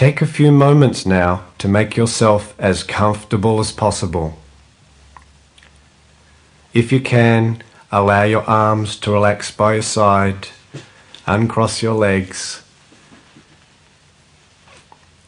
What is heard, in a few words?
Ultimate Relaxation Meditation